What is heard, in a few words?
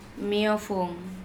"miofON", Andamanese; cave